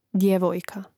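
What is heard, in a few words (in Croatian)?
"djevojka", Hrvatski; mreznik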